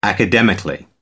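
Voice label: "academically", English, British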